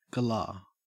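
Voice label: "galah", Australian English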